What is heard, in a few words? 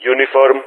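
"Uniform", spoken; Ka-50; Alphabet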